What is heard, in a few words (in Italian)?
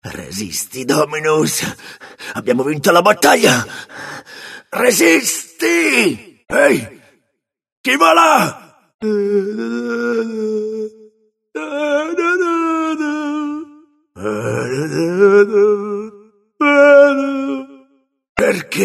path ita; God of War Collection Volume 2